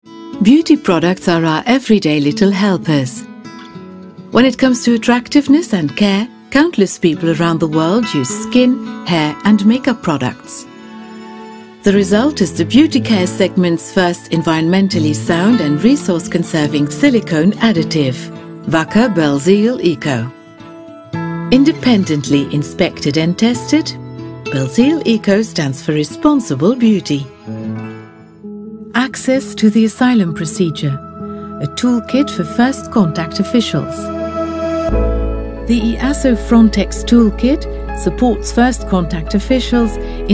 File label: european english commercial explanatory agency instructional video